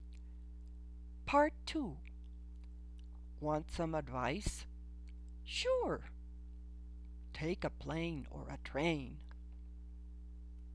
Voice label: conversation